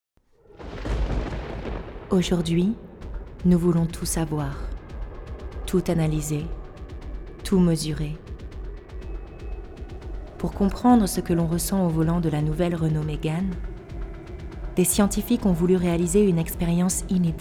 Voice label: Commercial Car; LOFT VOICES